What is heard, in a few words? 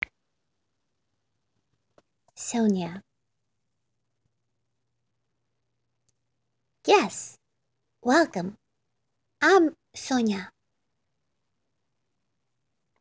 Voice Over English and Russian